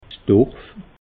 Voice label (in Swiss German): "dorf", alsace